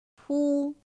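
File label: "pū", chino mandarin; pinyin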